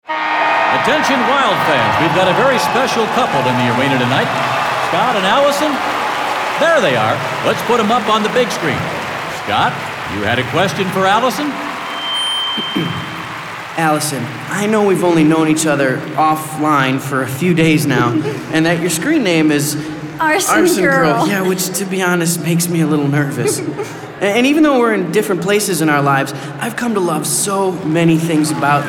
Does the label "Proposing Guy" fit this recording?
Yes